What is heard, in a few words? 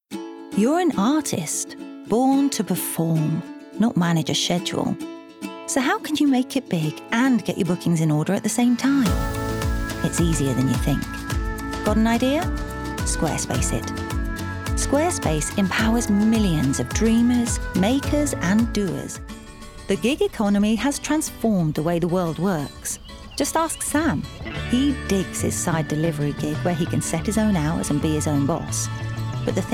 Corporate